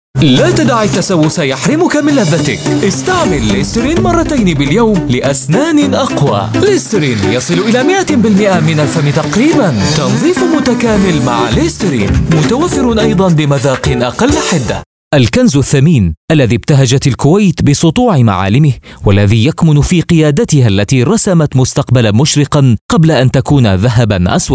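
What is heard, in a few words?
Arabic, seslendirme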